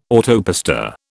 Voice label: "autopista", British

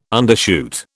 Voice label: "undershoot", British

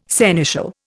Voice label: "seneschal", Indian

